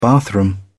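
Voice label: "bathroom", British English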